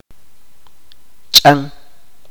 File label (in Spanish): "cuerda", chuj